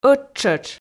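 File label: "ӧттшӧтш" Komi, female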